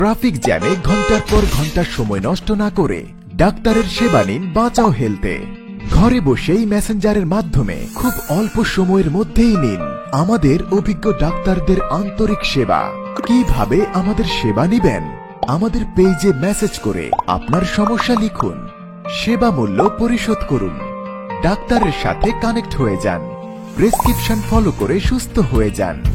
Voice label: bangla

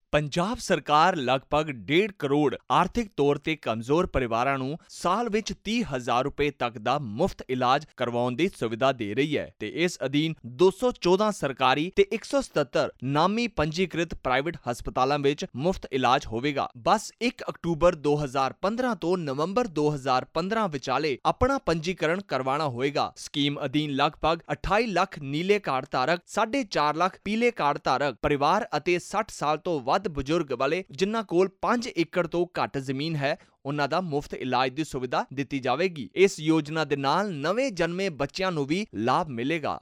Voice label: punjabi

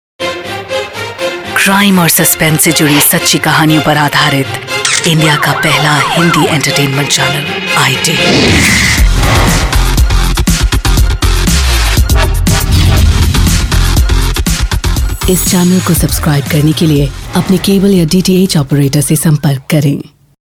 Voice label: Female

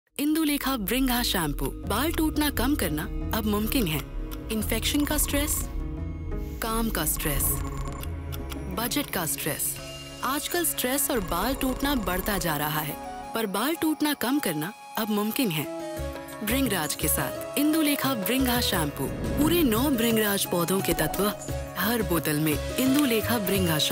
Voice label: TVC hindi

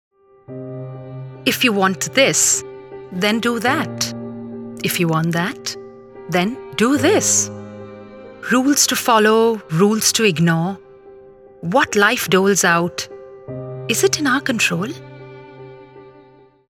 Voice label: Film English

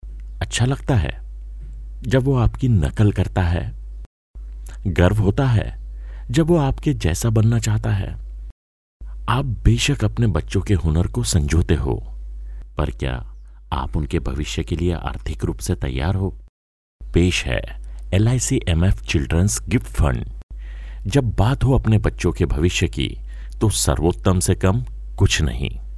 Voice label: hindi

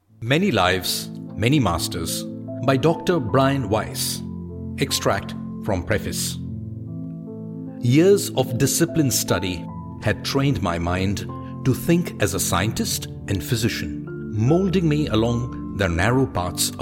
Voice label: ENGLISH AUDIOBOOK

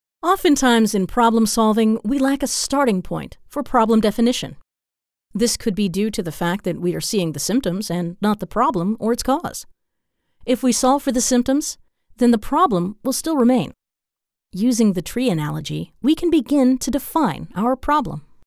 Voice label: elearn eng

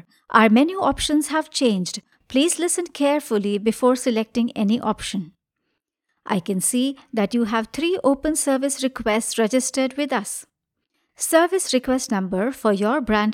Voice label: english IVR

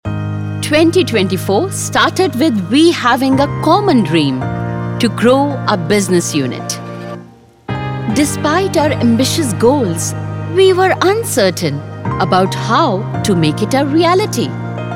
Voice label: Corporate English; Concentrix

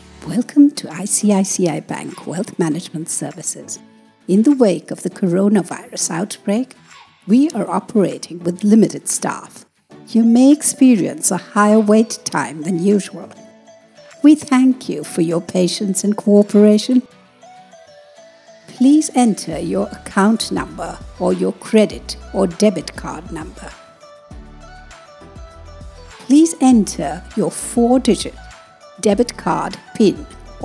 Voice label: IVR eng; icici

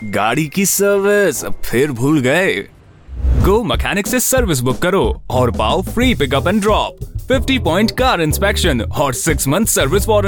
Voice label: Ad Hindi

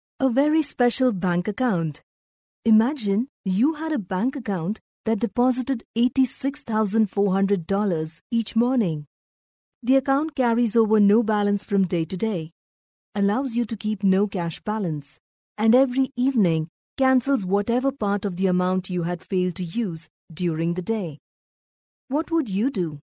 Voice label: audio book eng

